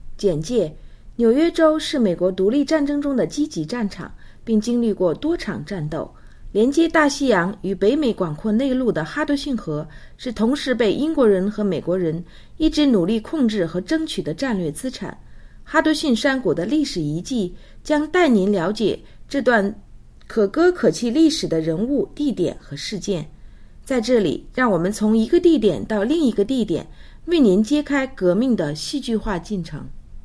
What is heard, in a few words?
Military Intro Chinese